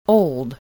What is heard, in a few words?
"old", voice, spelling